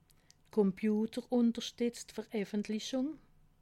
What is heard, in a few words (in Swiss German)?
"komputerunterstitzt", alsace